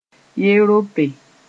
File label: "yerope", Mooré; Europe